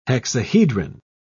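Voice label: "hexahedron", English, British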